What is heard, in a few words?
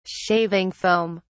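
"shaving foam", British English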